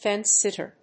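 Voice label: "fence-sitter", English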